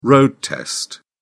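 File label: "road test", British English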